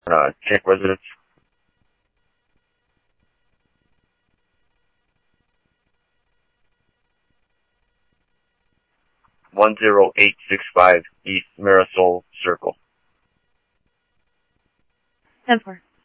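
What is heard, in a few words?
police department, scanner audio trunk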